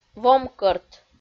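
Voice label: "вомкӧрт", Komi, female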